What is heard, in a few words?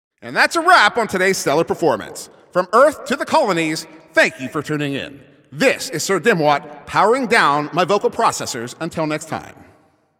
Announcer Game Ends